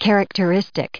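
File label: "characteristic", English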